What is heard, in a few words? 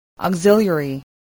"auxiliary", english